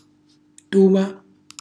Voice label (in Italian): "tuma", Calabrese; cucinacalabrese; glossario